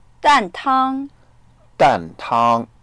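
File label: "dan4tang1", Mandarin Chinese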